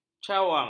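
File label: "cawang", Malay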